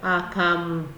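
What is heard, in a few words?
"akamu", Nigerian Pidgin, female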